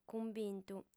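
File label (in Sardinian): "cumbintu", female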